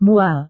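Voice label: "mual", Indonesian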